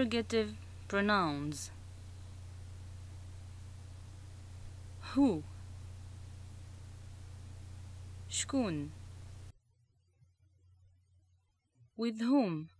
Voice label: interrogation, Arabic, North African, Moroccan